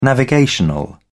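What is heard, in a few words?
"navigational", British English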